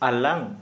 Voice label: Santali Tongue